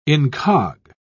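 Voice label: "incog", British English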